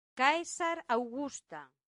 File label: "caesaraugusta", latin; santillana